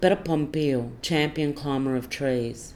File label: "perrepom-piyal" champion climber of trees